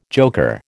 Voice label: "joker", American